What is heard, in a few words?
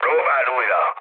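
Hungarian bomb failure mood high, speech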